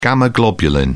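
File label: "gamma globulin", English, British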